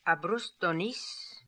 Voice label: "Abrustdonis", Beelzebub pronounciations; Fourth Way

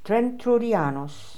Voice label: "Trentroodianos", pronounciations; Fourth Way; Beelzebub

